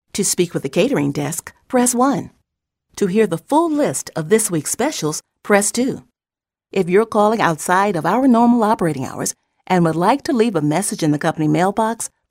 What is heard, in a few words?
COMPASSIONATE VOICEOVERS IVR Phone Menu Selections